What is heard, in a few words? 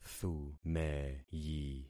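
"thumeyi", concatenated syllables; Affixing experiment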